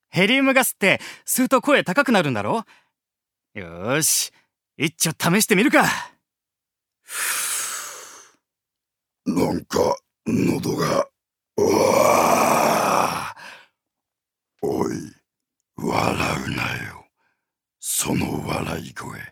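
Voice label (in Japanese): セリフ, 男性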